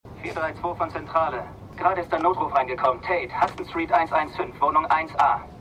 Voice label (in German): Funkstimme; The Unusuals